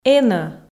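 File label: "энӧ", Komi, female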